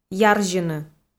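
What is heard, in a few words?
"ярзьыны", Komi-Zyrian, female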